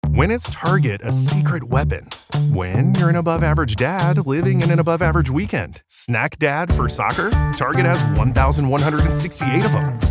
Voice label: Corporate, narration